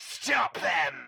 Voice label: Scientist Caster Chokepoint Line

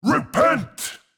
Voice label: Mid Fight Taunts; Voll; NPC; Voll Combat

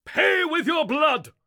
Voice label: Melee Miniboss Mid Combat Line

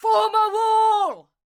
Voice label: Ranged Female Chokepoint Line, dialogue; NPC; Heist Thug Chokepoint

